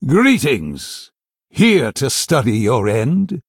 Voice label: Male Human Greetings; en